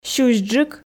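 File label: "сюсьджык", Komi, female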